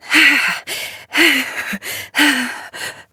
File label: Operator Voice